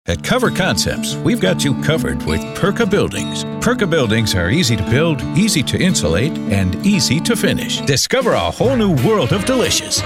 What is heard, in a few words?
Commercial